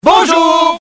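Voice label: "bonjour", French; cstrike; forlix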